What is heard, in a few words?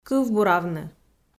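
"кывбуравны" Komi, female; kpv audio dict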